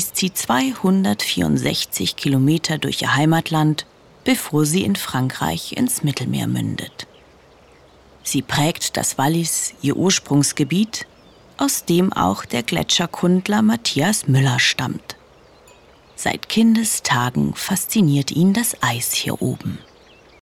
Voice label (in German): Doku Schweiz, Voice Over Schweiz Reportage, German; Doku, Audioguide